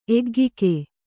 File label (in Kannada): "ಎಗ್ಗಿಕೆ", Kannada